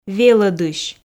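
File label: "велӧдысь", Komi, female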